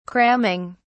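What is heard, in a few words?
"cramming", British English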